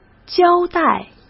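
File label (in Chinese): "交代", Mandarin Chinese; chinese-flashcards; hsk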